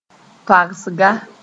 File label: "toagsga", moore